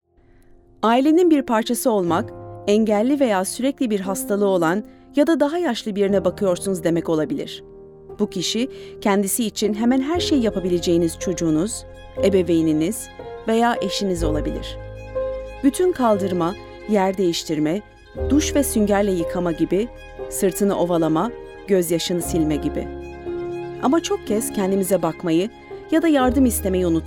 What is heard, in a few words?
Turkish; Carer Gateway